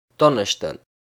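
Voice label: "Donneschden", Luxembourgish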